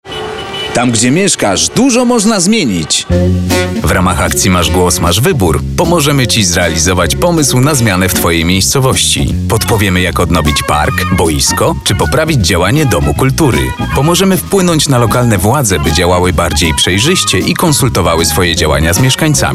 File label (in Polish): Spot radiowy